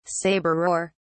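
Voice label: "sabreur", English, British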